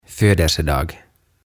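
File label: "Födelsedag", "Syntymäpäivä", Swedish, Finnish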